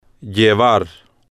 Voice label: "llevar", spanish

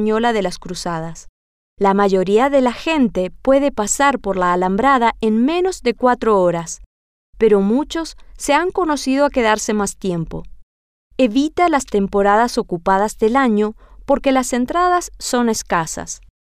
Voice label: la gran fortaleza de los moros, spanish

